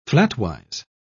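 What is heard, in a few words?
"flatwise", British English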